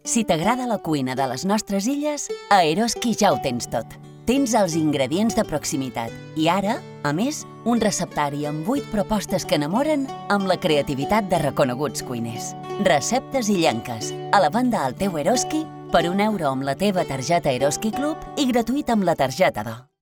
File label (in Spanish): megafonia; Eroski